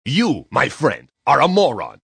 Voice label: "Friend Are A Moron", Male; Attitude; Voice Box

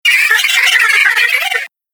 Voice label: Alien Chit Chat; Alien Sound Effects; Sci-Fi Sound Effect

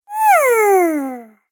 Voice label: Interested Sound Cartoon Game Voice; Cartoon Voice Sound Effects

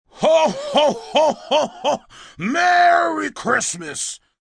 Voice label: Xmas Santa, holiday, male; Occasion, event; Voice Box

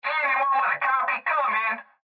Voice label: Cb Trucker Radio; Industry; Blastwave

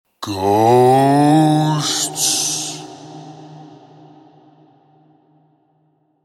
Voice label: The word ‘Ghosts’ spoken in male ghostly voice; Horror

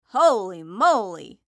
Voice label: Cartoon Voice Female; Cartoon Voices; Blastwave

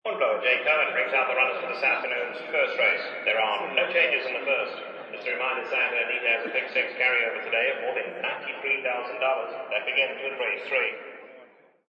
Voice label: Race Annoucer; Loop ambience of horse racing crowd, outdoors; Crowds; Backgrounds; Santa Anita race track PA announcer annoucing racing updates

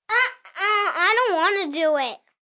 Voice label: Whine Dont Wana Do, 1 boy, 8 yrs; Whining Sound Effects; VoiceBox; Twistedtracks

